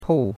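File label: "pou4" Mandarin Chinese syllable, fourth tone; Cours de Chinois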